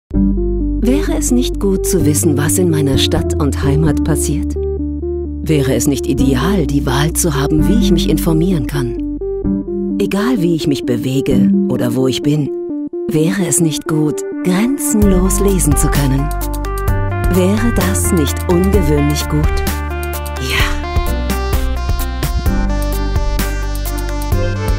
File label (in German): Sanft; Fremdtake